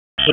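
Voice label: EMS Dispatch, p25; wake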